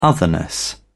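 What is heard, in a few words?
"otherness", British English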